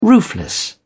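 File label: "roofless", English, British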